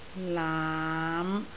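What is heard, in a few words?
"laam5", Cantonese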